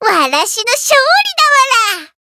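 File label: win, Japanese; makiwara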